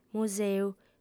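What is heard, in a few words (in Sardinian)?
"museu", female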